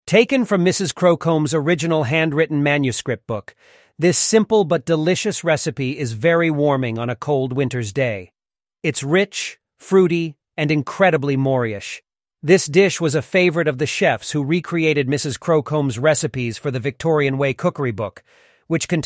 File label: synthesized audio